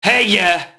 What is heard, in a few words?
mobster alert; enemy; Max Payne